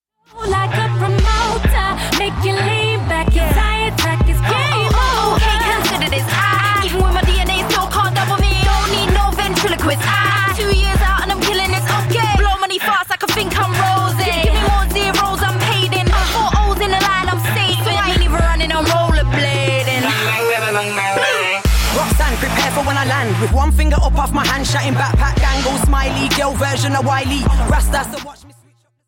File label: female mcs